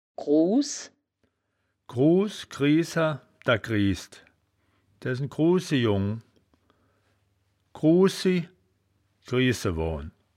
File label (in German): "gruhs", wittlich; das wittlicher woerterbuch; woerterbuch vertonung